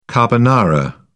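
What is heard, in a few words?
"carbonara", British English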